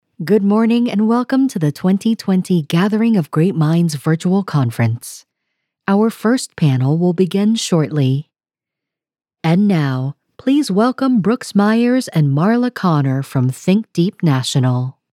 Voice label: Corporate